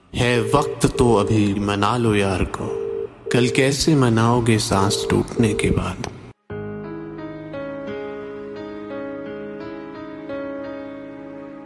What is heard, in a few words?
Sad Shayari Ringtone